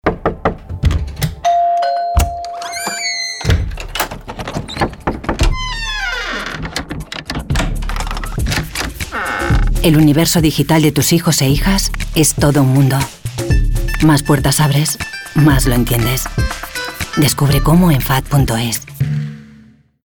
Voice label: radio; C vs hijos e hijas